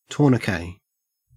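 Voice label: "tourniquet", Australian English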